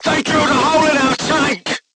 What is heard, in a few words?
voice message player tank hit, crew tanks portuguese